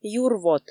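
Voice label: "юрвот", Komi, female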